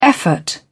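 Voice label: "effort", British English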